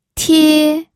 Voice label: "tie1", pinyin